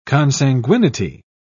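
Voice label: "consanguinity", British English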